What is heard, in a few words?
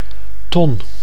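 "ton", Dutch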